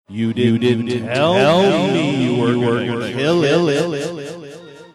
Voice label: saying you didn't tell me you were gonna kill it echo reverb